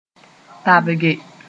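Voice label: "tabge", Mooré; gather (once)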